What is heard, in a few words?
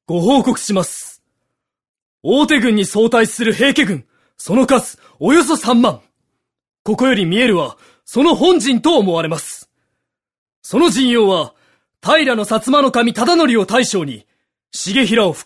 m-kakkoii